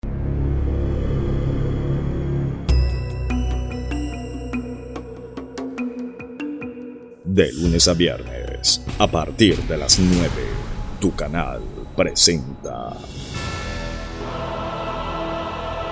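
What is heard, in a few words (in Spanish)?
promo TV low tone; PROMO TV TONO BAJO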